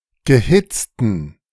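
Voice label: "gehitzten", German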